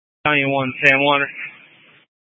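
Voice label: Incident Tac 2, Scanner audio recording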